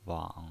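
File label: "wang", pinyin voice, third tone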